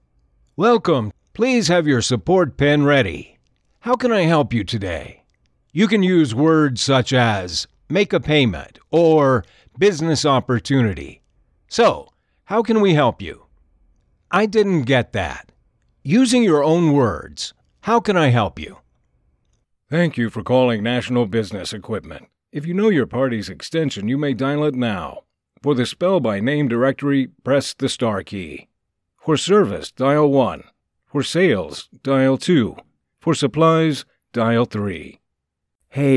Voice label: PHONE